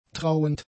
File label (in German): "trauend", German; adjectives